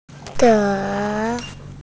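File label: "zhe2", Chinese, F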